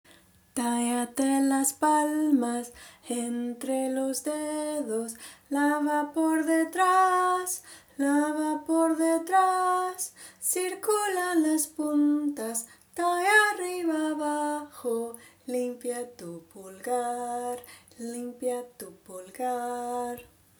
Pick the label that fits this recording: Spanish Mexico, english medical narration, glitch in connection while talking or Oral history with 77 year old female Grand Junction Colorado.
Spanish Mexico